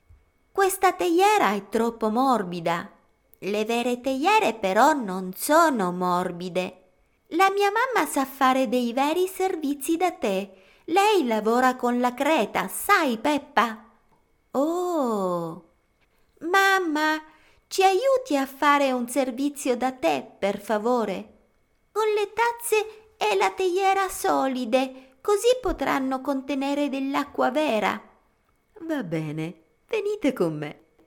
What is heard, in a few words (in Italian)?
voice cartoni